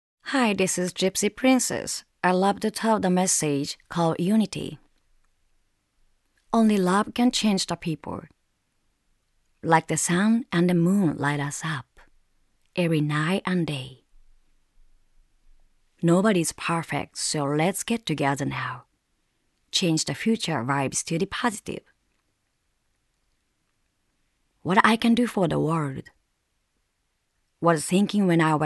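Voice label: Voice Sample Narration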